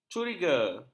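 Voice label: "curiga", Malay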